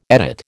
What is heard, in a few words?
"edit", American